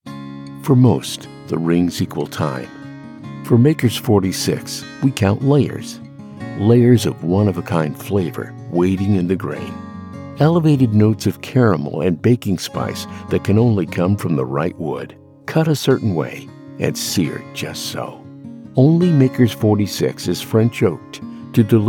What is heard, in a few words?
Intimate Conversation; Warm, Authentic Voice with Broadcast Experience